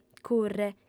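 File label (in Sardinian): "curre", female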